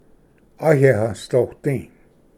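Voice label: "ayehvsdodi", Cherokee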